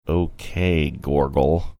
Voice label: quietly saying okay gorgle

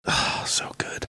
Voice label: whispering oh so good

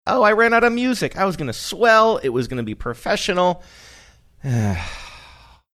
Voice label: saying oh i ran out of music sighing

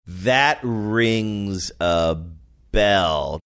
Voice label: slowly saying that rings a bell

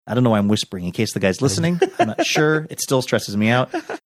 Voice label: i dont know why im whispering

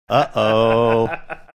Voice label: uh oh

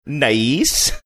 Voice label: funny; nice

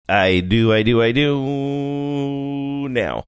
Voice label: saying i do i do i do now sing songy

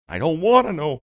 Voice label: saying i dont wanna know funny

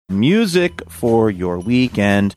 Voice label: saying music for your weekend sing songy

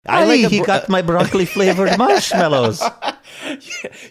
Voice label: talking like leprechaun

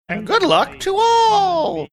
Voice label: saying and good luck to all funny

